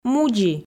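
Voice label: "мудзи", Komi, female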